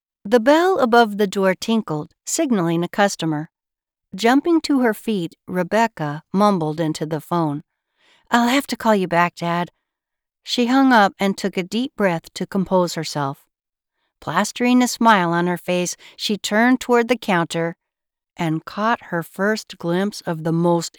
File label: 3rdp F M Romance Cozy Paranormal Cowboy